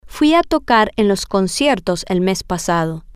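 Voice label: I went to play at the concerts last month, Spanish, female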